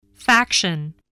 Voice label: "faction", voice